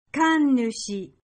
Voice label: "Kannushi", Japanese